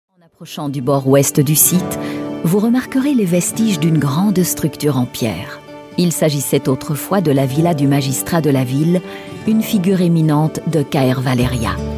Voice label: french belgian female audio tour montage, Belgian French Voice